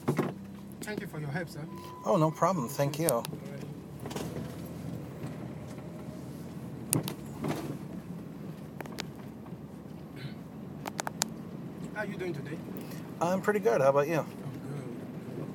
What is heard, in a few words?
cool accent